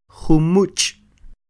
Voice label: "Jumuch'", Quiché; ochenta; eighty; INALI; UTexas; Números